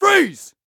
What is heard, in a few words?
Emergency Police Male Freeze